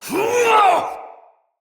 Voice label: Attack Heavy, Soldier Male; Dendrit